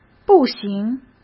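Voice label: "不行", Mandarin Chinese; hsk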